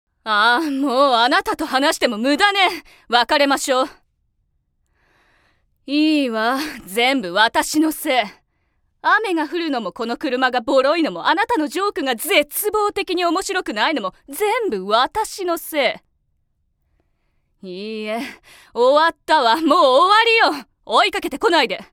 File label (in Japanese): 外画(20代)